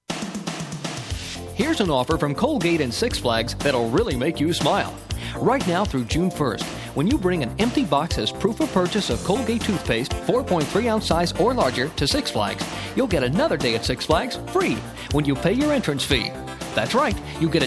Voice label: Radio Spot